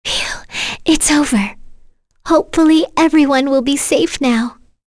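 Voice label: Vox Victory, voices heroes English; Kirze; kingsraid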